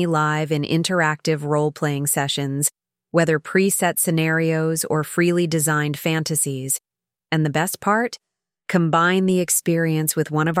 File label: The seductive voice